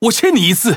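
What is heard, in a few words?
Chinese (Taiwan) Speech Quick Commands Thank You; World Of Warships; ZH TW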